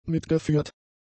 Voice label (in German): "mitgeführt", German; adjectives